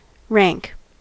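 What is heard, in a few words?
"rank", US English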